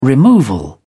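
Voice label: "removal", English, British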